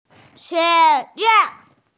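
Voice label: "xue2yuan4", Mandarin Chinese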